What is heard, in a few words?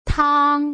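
"tang", Mandarin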